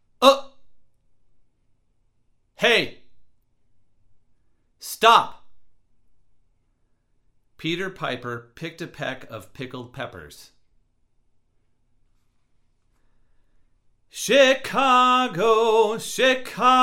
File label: Before cardioid 18 inches male